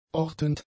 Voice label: "ortend", German; adjectives

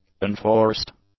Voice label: "unforced", english; adjectives

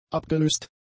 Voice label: "abgelöst", German; adjectives

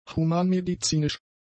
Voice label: "humanmedizinisch", German; adjectives

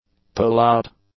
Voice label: "pullout", English; nouns

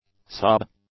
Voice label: "sob", english; nouns

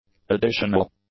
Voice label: "additional", English; adjectives

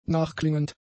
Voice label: "nachklingend", German; adjectives

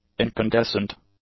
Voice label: "incandescent", English; adjectives

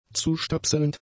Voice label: "zustöpselnd", German; adjectives

